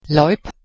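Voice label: "loip", Proto-Indo-European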